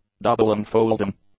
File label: "doubling-folding", English